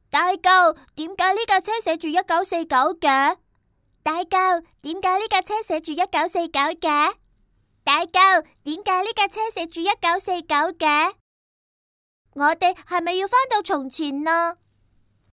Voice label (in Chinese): 女 角色; 火火